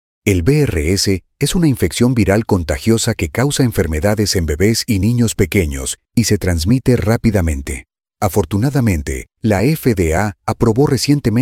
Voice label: Radio Ad Spanish; 30 seconds; VRS

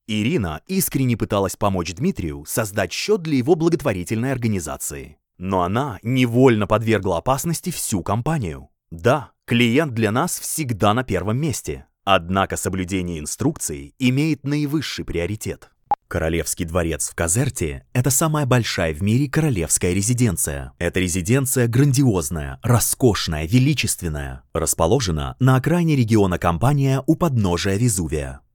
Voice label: Audio Book Russian

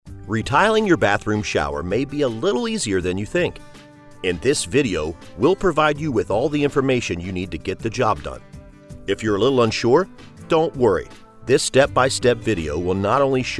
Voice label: Instructional

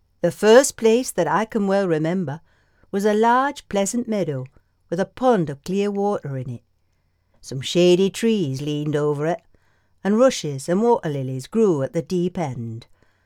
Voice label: Yorkshire

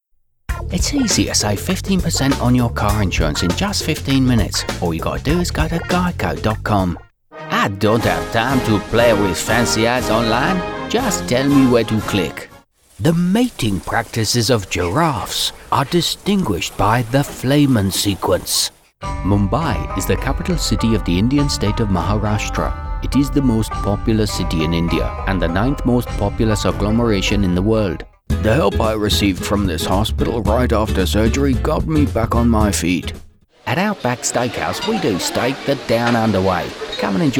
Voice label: Characters Accents Voice-over Stereo

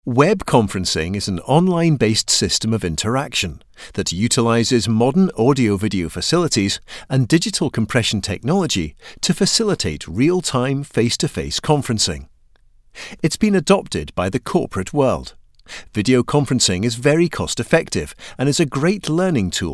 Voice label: elearning

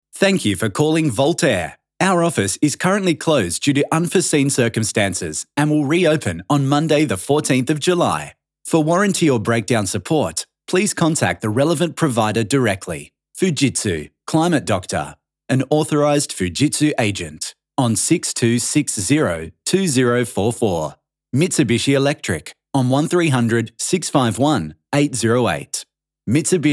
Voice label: On Hold Phone Message

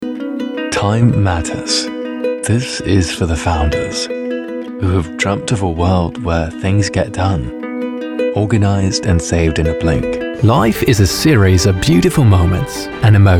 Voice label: British Commercial

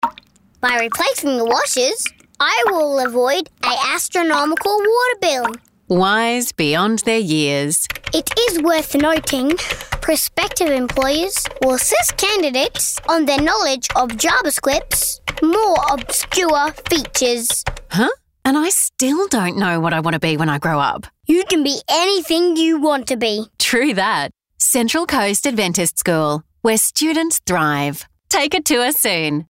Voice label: Radio; CCAS

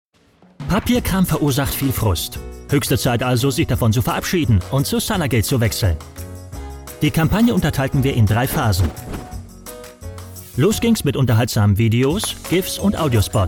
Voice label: overdubbing informative upbeat German

